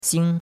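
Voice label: "xing1", Mandarin Chinese; Cours de Chinois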